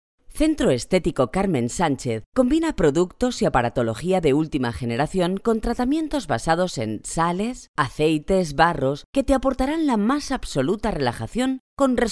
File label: spanish f commercial